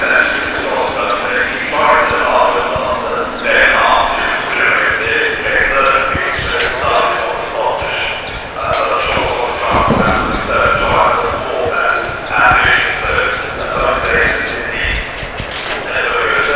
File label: announcer